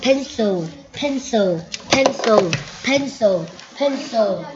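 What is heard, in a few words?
"pencil", spoken word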